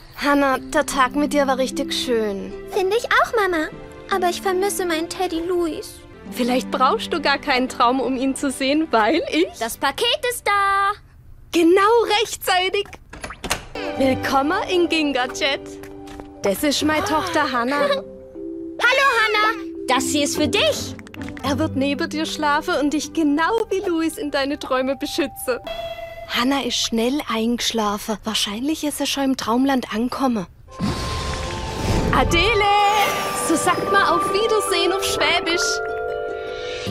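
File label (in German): schwäbische Mutter Animation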